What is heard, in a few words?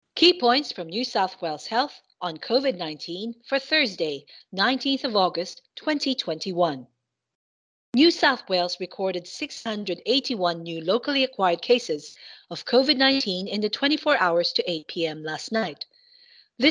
english